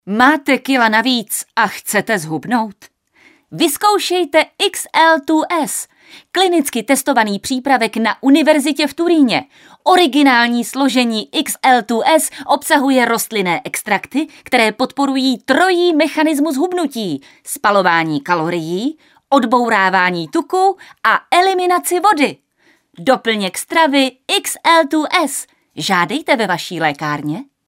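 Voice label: female Czech commercial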